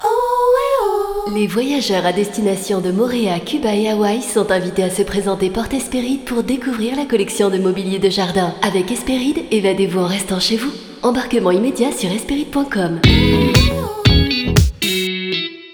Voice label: HOTESSE DE L AIR AEROPORT sensuelle affirmée souriante

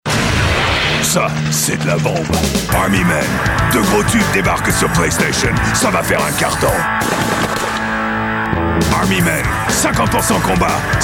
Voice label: catcheur voix off voice over; Army Men

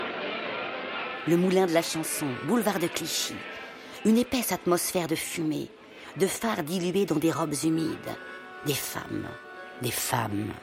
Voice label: docu; paris romantique

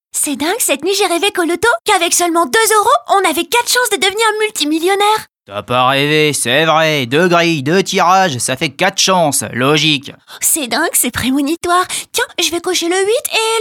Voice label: Voix enfant; Loto

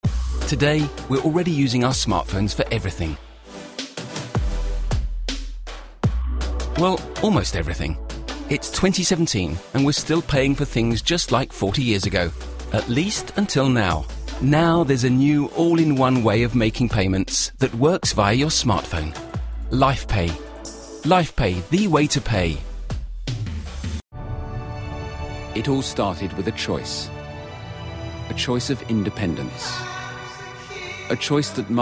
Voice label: Medley Institutionnel

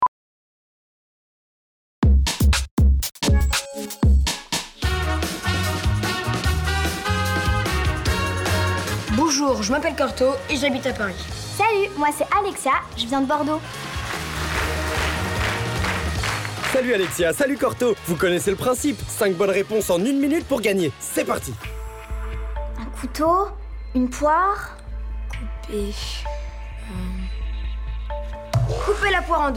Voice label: presentateur; FELIX MA VIE ENN COULEURS

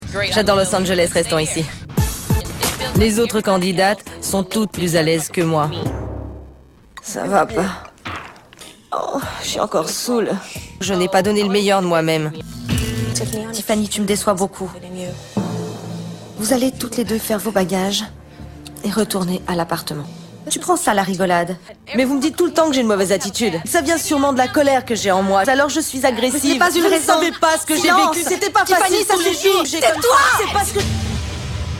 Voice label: post synchro 2 voix qui se répondent; TYRA BANKS; AMERICAS NEXT TOP MODEL

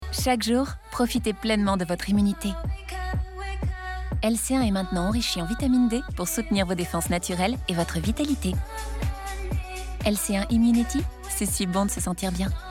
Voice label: pub TV yaourt; Yaourt LC1

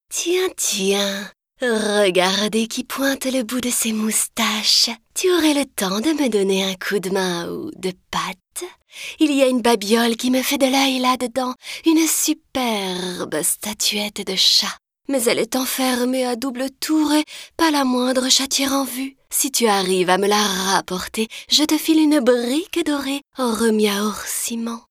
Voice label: chatte provoquante et manipulatrice; CATWOMAN

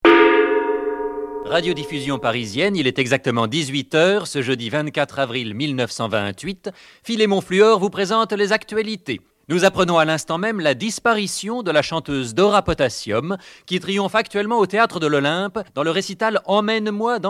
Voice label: Style retro, voix-off

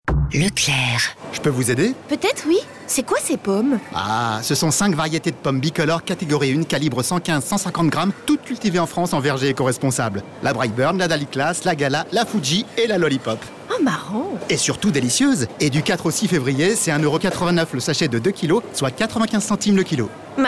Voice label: H FR PUB; Leclerc

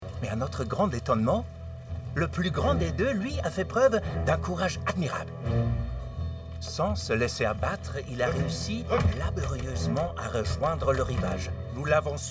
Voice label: doublage; voix-off; Gentlemen

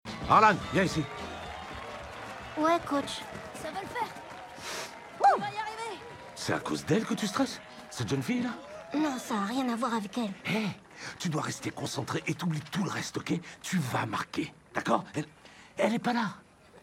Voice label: voix garcon film; Home Team

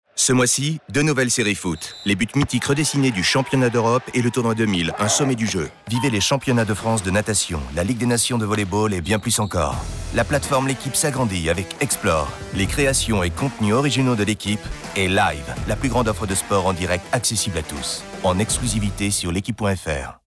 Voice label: radio; LEQUIPE

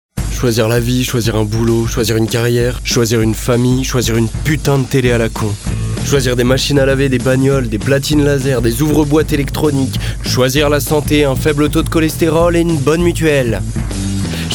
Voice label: dynamique

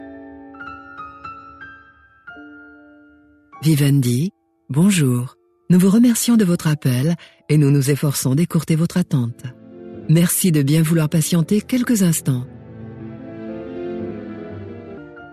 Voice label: Répondeur; Vivendi

